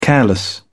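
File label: "careless", British English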